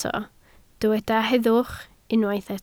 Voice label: "heddwch", Welsh